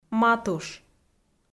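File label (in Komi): "матуш", Komi-Zyrian, female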